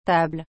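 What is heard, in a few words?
"table", French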